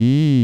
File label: 2D Width Sq Bead Vib, vowels vibrato; Width Mapped